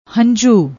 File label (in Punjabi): "hanjhu", Punjabi; ਹੰਝੂ